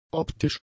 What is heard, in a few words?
"optisch", German; adjectives